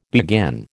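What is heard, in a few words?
"beguine", American English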